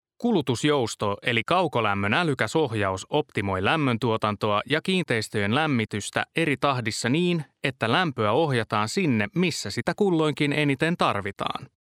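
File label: Finnish, male, corporate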